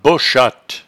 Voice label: "bochatte", patois jura

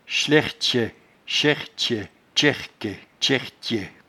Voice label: "schlertchets", patois jura

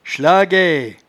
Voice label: "schlagee", patois jura

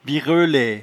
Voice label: "vireulaie", patois jura

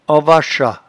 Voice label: "anvacha", patois jura

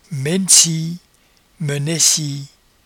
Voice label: "mennecie", patois jura

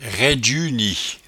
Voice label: "raidjeuni", patois jura

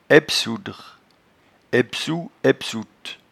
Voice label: "aibsoudre", patois jura

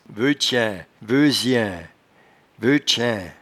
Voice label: "veuchain", patois jura

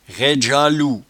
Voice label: "raidgealoup", patois jura

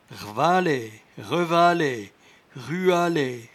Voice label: "rvalaie", patois jura

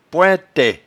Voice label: "pointet", patois jura

